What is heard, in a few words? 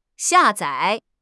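"xia4 zai3", Mandarin Chinese; 汉语口语新时代-初级下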